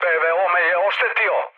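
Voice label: Serbian damaged aa mood med, speech; War Thunder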